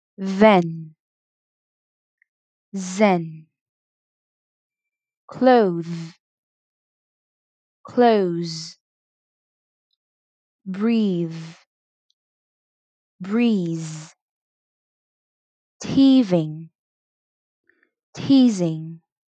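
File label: Minimal Pairs d z, pronunciation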